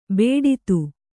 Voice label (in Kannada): "ಬೇಡಿತು", Kannada